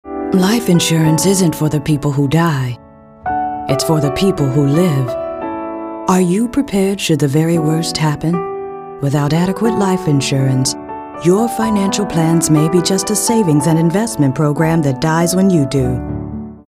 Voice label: caring

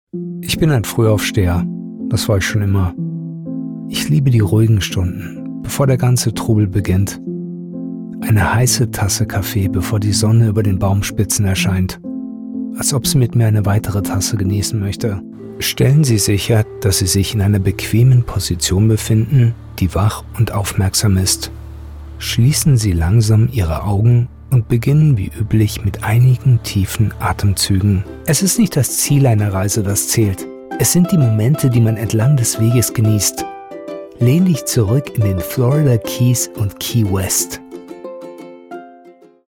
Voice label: Warm And Engaging

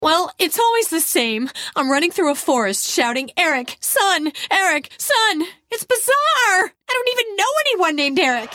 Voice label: Characters, Frantic Mom

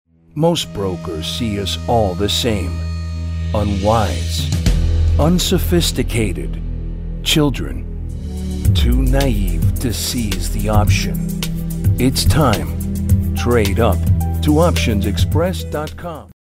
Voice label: biting attittude tough gritty

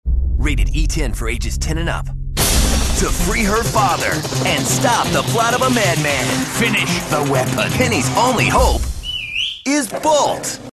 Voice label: movie trailers

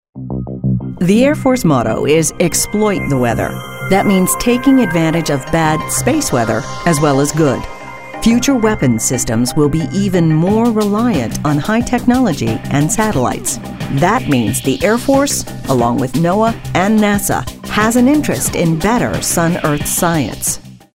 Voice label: newscasters

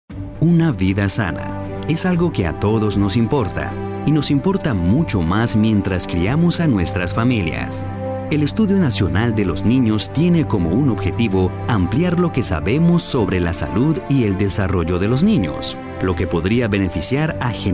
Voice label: caring concerned

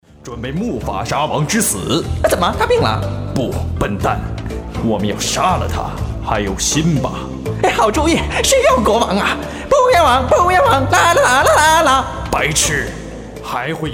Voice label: Foreign Film Dubbing

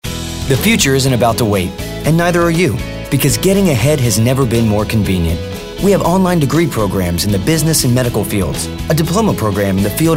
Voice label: Sincere

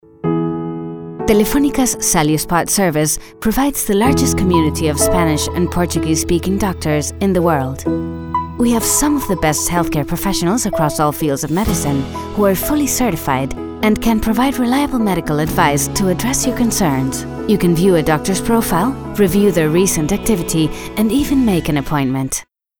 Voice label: Healthcare Commercial English

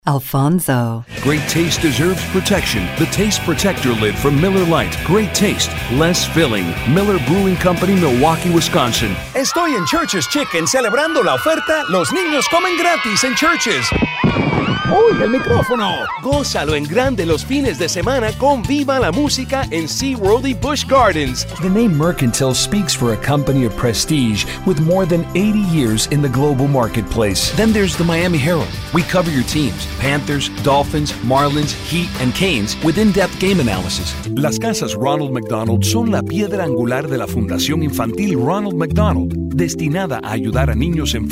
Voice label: Spanish

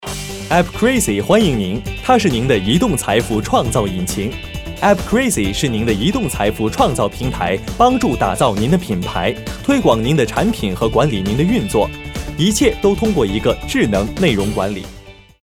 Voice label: Mandarin, Corporate

